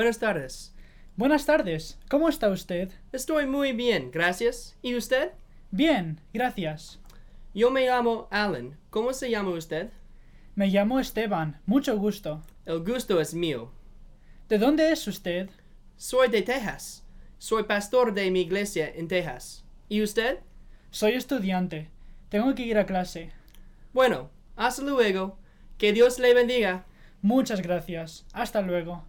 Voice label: Dialogue, Spanish